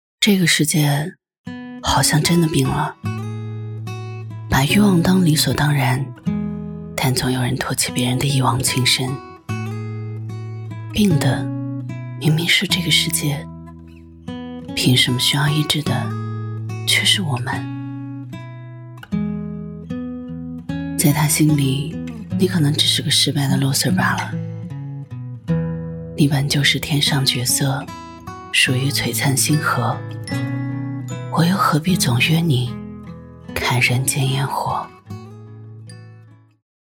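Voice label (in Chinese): 旁白 女 情感类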